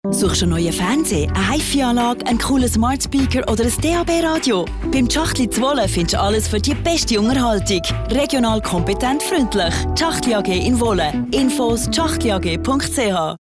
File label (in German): Werbung CH BE